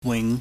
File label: "wing", Chinese